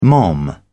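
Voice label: "mom", British English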